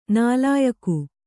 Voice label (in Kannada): "ನಾಲಾಯಖ್", Kannada